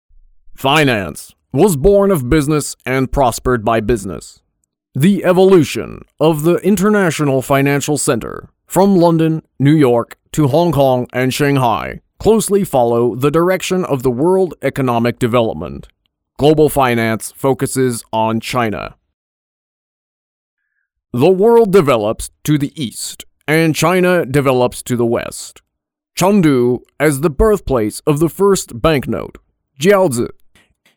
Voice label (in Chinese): 外籍配音美式男, 城市宣传